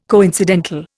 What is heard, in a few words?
"coincidental", Indian